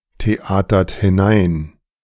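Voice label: "theatert hinein", German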